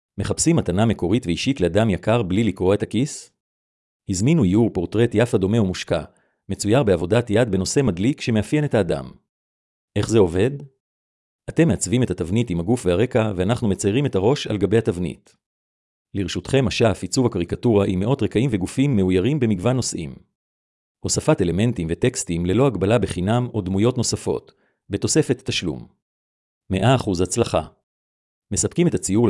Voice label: voice template caricature